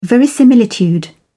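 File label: "verisimilitude", British English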